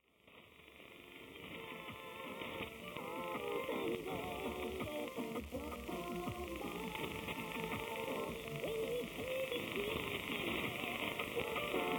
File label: 5840 kHz, danish; World Music Radio; Denmark; FT 2000; Schwarzsee